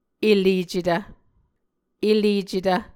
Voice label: "elecetv", Muscogee

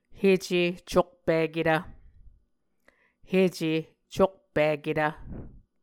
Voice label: "hece cokpiketv", Muscogee; Mvskoke Words with Voicing Consonants; Onvkv Ohhvyayices

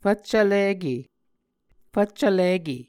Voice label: "fvccvlike", Muscogee; Onvkv Ohhvyayices; A Dictionary of Creek/Muskogee; SIL International

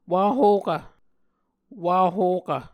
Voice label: "wahokv", Muscogee

